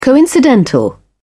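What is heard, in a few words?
"coincidental", British English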